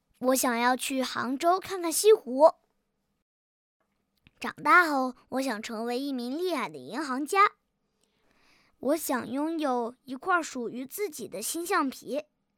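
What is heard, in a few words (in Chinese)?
女大音-男真童; 真童配音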